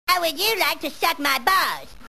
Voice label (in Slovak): sms hlásky en